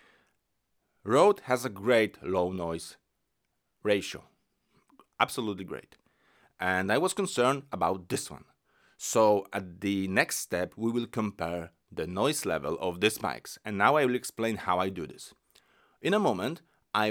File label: sample mic; tonor mic